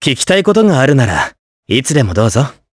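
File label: vox dialogue, voices, Japanese; Evan; kingsraid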